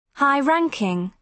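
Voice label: "high ranking", British English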